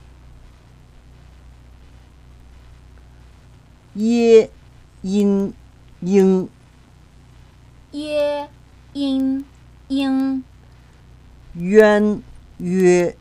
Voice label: pinyin, chinese